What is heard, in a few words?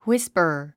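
"whisper", 英単語 発音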